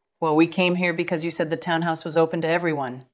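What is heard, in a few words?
VoiceActing Spanish, female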